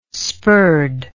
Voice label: "spurred", English

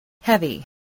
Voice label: "heavy", English, spelling

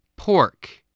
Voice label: "pork", English

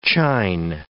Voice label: "chine", English

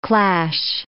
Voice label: "clash", English

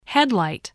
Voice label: "headlight", English, spelling

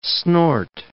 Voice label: "snort", English

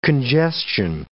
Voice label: "congestion", English

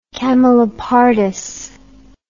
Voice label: "camelopardus", English

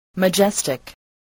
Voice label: "majestic", spelling voice

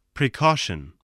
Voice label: "precaution", voice, spelling

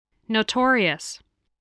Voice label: "notorious", voice, spelling

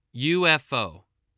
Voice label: "UFO", English

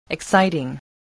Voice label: "exciting", voice, english

